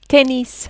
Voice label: "tennis", French